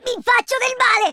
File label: ouch, speech, Italian